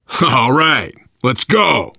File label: move on, voice, biker; Left 4 Dead 2; left4dead2 dlc3; survivor